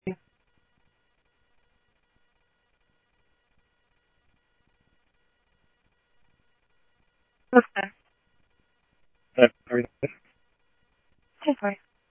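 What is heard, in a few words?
Sheriff Lake Patrol, scanner audio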